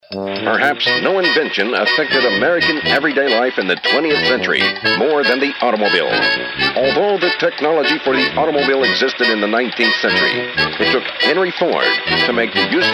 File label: Old Style Narrator